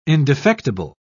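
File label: "indefectible", British English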